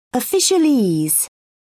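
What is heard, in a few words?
"officialese", British English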